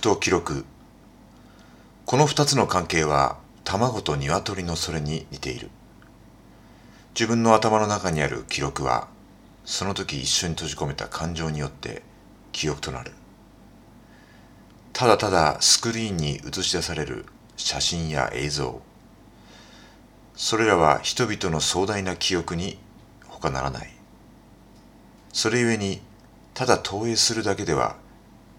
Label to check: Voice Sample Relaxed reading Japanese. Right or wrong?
Right